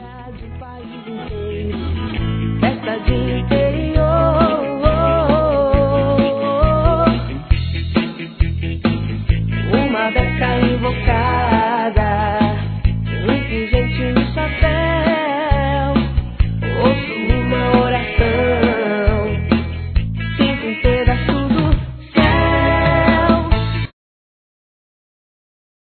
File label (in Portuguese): ANIVERSÁRIO TIO PADRINHO F, Telemensagens, Fem; Aniversários; Aniv Familiar